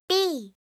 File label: "b", alphabet